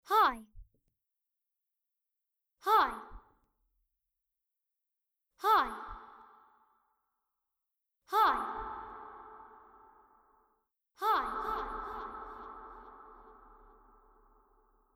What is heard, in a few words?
Child's voice – 'hi' – dry to reverberant; Sound-makers